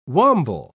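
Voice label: "wamble", English, British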